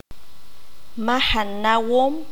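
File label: "Huésped", Q'anjob'al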